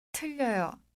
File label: incorrect wrong, korean